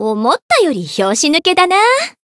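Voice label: win, Japanese; shayne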